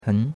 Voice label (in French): "hen2", chinois; Cours de Chinois